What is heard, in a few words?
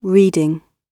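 "reading", British English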